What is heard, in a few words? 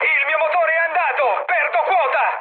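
Italian damaged engine mood high, speech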